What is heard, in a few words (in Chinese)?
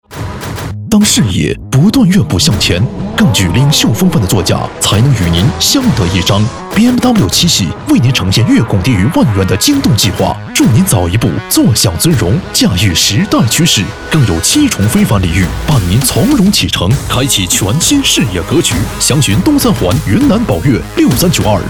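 男 电台广告 配音, sd235 voice sample; 宝马7系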